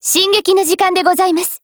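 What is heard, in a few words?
ATTACK Japanese; G36Mod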